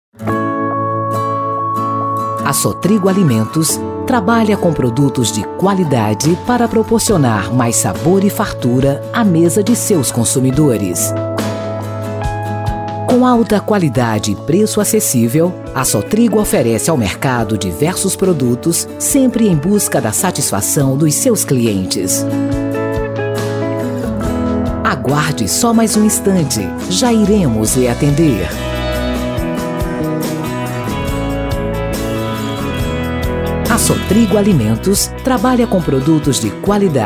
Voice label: Espera Telefonica Voz BR

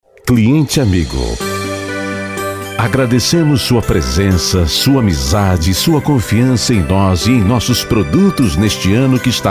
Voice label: SPOT DE NATAL

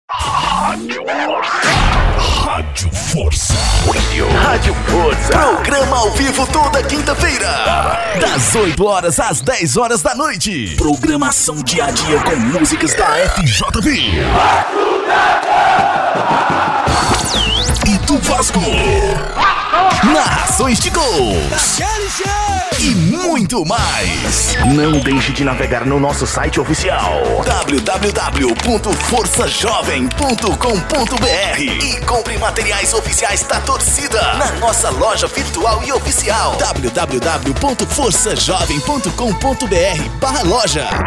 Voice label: Spot Radio; Vasco

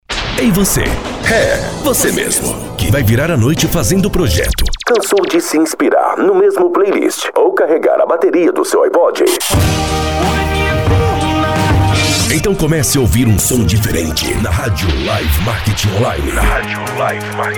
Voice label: SPOT COMERCIAL RADIO; LIVE MARKETING

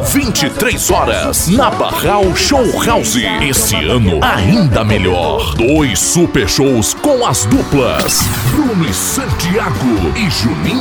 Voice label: chamada junina; fantasy

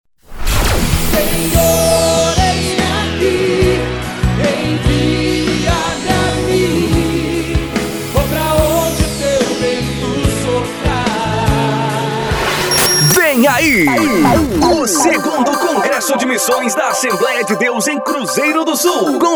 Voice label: chamada gospel; adsul

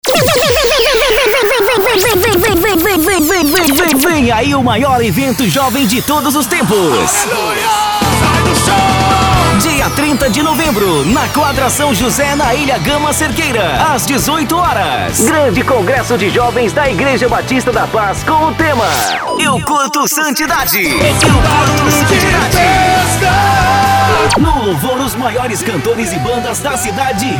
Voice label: CHAMADA DE FESTA GOSPEL

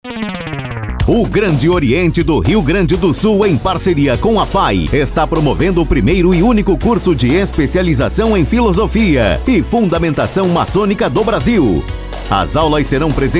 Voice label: SPOT COMERCIAL; FAI

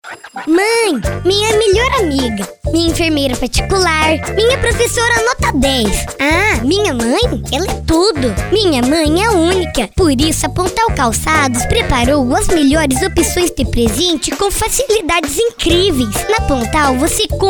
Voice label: spot voz; pontal

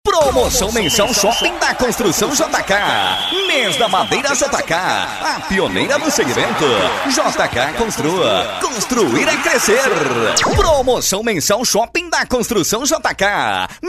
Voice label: Locutor Esportivo AM

